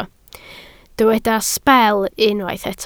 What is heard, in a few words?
"sbel", Welsh